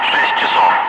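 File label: Russian speech, Ka-50 Wingman; DCS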